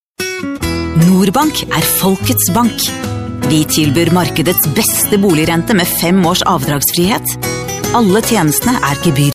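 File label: norwegian female commercial; voice demos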